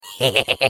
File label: Defective Turret laugh, fr; sp sabotage factory